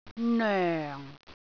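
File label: "noeng4", Cantonese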